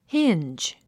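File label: "hinge", English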